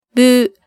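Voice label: "bu", Japanese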